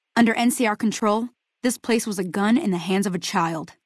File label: female adult default greeting; FNV